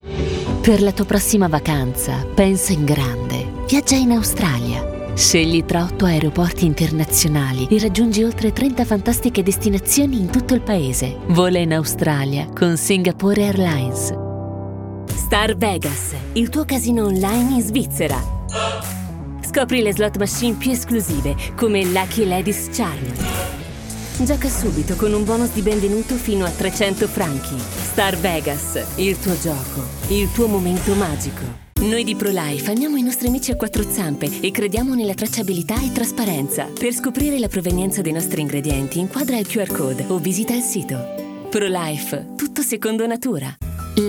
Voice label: COMMERCIALS NATIONAL

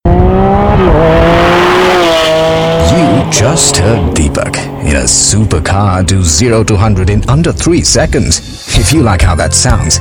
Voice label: COMMERCIAL MIX ENGLISH

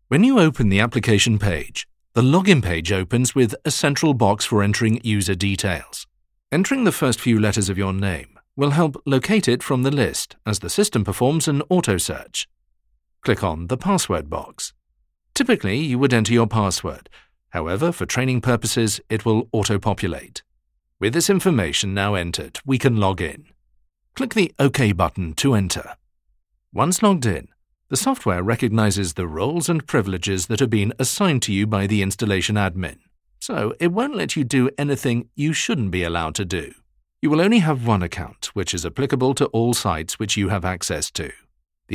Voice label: Elearn conversational pacy

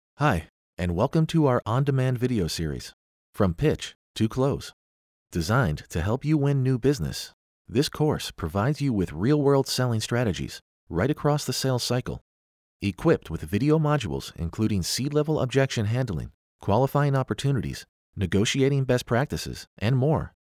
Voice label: natural speaking voice

